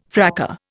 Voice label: "fracas", Indian

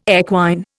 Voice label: "equine", Indian

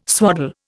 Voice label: "swaddle", Indian

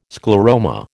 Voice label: "scleroma", American

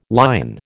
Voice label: "lion", American

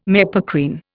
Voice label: "mepacrine", Indian

